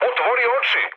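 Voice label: Serbian player friendly fire mood med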